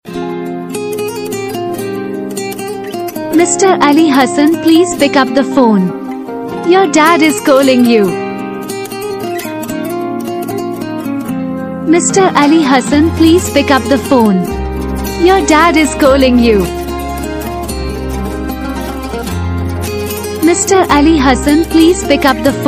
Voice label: please pickup the phone your dad is calling you ringtone